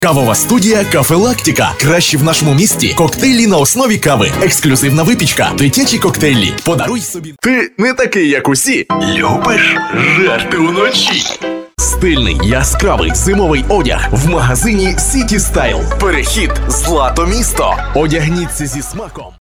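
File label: Ukrainian; mann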